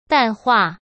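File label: "淡化", Mandarin Chinese; hsk; chinese flashcards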